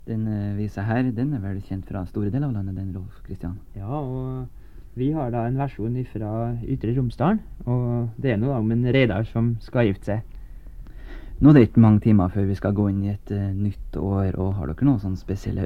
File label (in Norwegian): Tale